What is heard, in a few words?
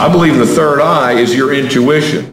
LoFi Phrases, LoFi Vocals; Vengeance Samples